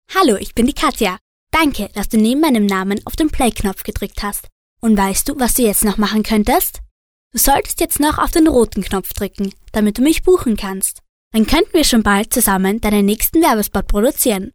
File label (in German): Sprecher Kinder Neutral